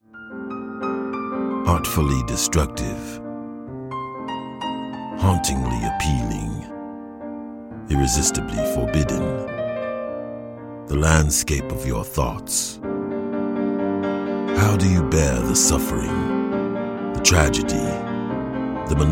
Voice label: Accents